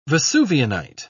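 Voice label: "vesuvianite", British English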